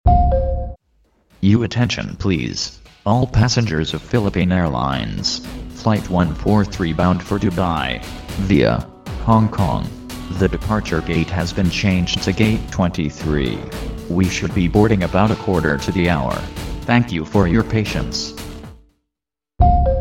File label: flight announcement